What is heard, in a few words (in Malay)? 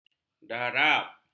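"darab", Malay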